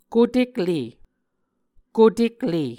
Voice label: "kotekle", Muscogee

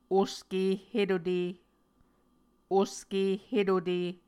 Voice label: "oske hetute", Muscogee

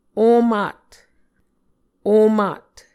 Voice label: "omat", Muscogee

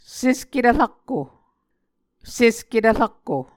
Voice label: "sesketv rakko", Muscogee

